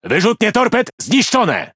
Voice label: Speech Defective Modules Torpedo Destroyed, PL; World Of Warships